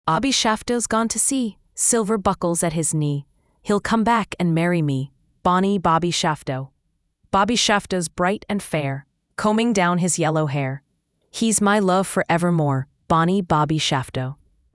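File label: female US; Bobby Shafto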